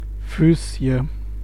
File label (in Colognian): Füßßje, M; Ksh